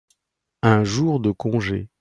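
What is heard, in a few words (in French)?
"un jour de congé", French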